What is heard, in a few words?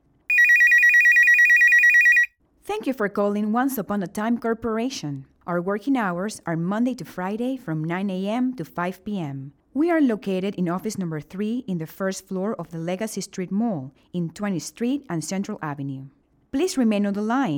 contesta english